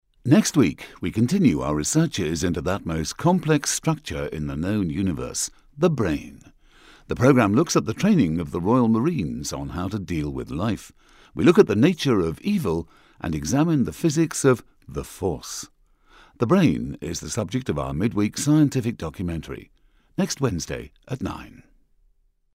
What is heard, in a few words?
Television continuity